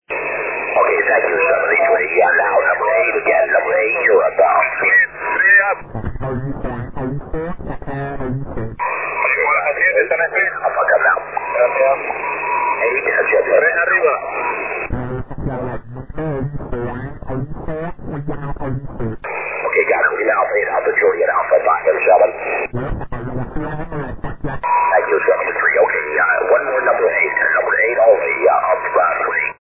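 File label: 20m single sideband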